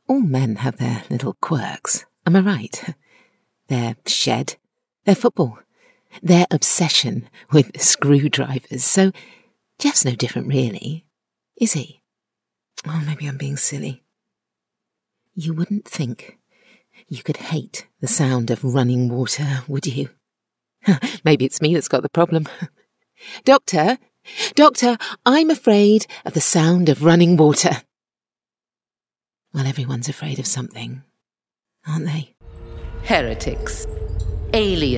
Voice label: drama character